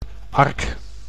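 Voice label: "ark", Dutch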